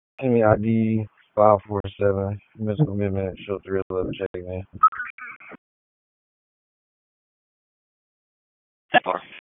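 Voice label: Raleigh Police Northeast District Dispatch, p25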